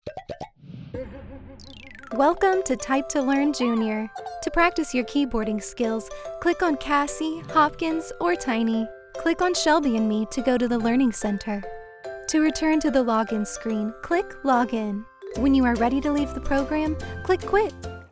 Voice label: elearning, Female Voice Over